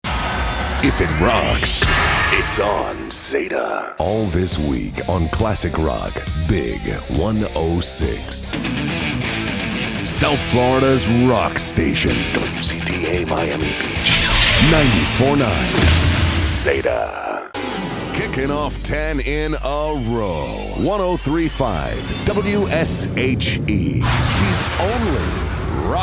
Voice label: Male Radio Imaging